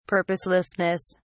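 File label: "purposelessness", British English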